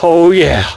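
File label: "oh yeah", male; Splashdown; XBOX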